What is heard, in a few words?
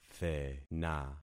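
"thena", concatenated syllables; Affixing experiment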